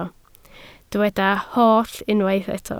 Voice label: "holl", Welsh